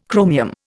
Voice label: "chromium", Indian